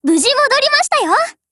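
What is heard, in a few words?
operation over, voice, Japanese; GF Alarm; C96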